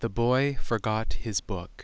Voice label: clear quiet